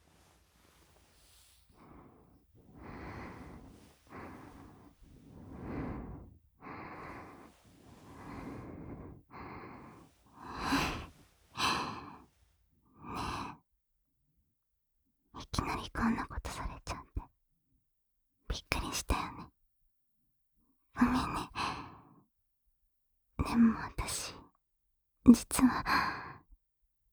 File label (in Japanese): 好き好き囁き、耳舐めキス（甘々、好き囁き、耳舐め、Dキス）